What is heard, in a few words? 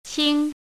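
"qing", pinyin, first tone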